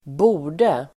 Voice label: "borde", Swedish